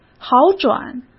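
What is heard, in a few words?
"好转", Mandarin Chinese; hsk; chinese-flashcards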